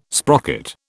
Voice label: "sprocket", British

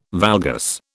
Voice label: "valgus", British

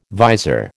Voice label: "vizor", American

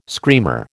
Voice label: "screamer", American

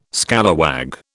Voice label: "scalawag", British

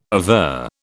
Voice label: "aver", British

